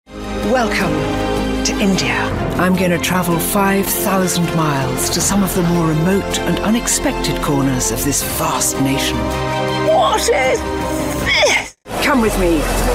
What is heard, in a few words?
Documentary Reel; Natural Accent: RP